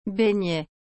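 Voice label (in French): "beignet", French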